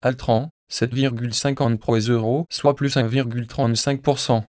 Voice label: nphones synth